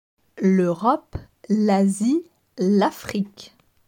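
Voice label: "l Europe", French; grammaire; les articles definis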